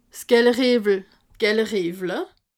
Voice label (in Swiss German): "galeriewel", alsace